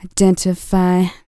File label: FEM. SPOKEN; R&B Dance